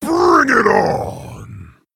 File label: Male Orc Emote MIRROR START